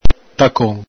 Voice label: "tacon", Veneto